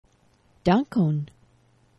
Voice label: "dankon", esperanto; geneva

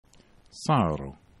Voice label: "caro", esperanto

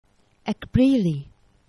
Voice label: "ekbrili", esperanto; geneva

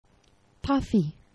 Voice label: "pafi", Esperanto; geneva

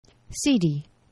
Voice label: "sidi", Esperanto; geneva

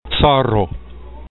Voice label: "caro", esperanto

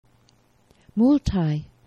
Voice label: "multaj", Esperanto; geneva

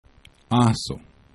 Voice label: "aso", esperanto

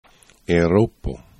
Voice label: "euxropo", esperanto

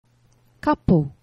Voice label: "kapo", Esperanto; geneva

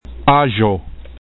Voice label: "ajxo", esperanto

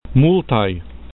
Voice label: "multaj", esperanto

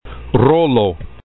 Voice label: "rolo", esperanto

